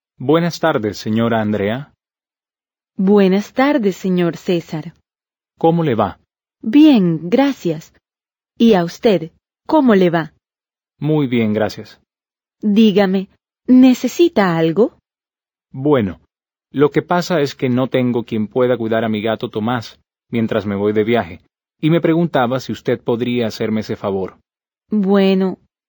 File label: Dialogue, Spanish